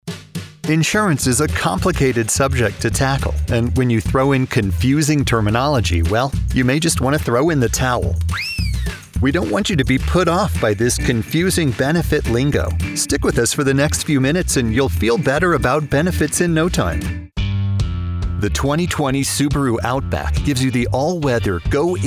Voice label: corporate narration